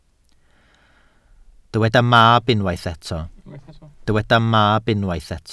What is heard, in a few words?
"mab", Welsh